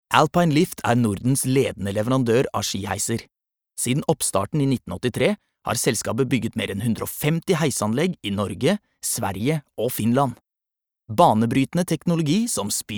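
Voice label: Norwegian corporate, male; norsk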